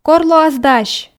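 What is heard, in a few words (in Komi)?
кор лоас дась, Komi, female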